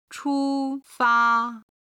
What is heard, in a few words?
"chufa", Chinese